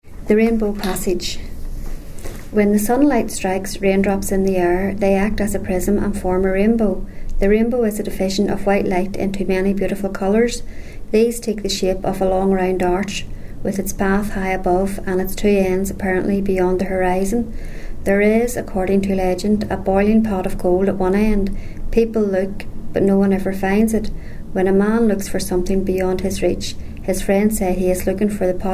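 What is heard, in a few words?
sentences, dialects ni; reading passage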